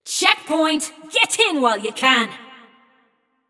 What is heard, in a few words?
Checkpoint Opened, Announcer; Flux; Scraprun